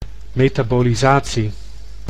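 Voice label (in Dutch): "metabolisatie", Dutch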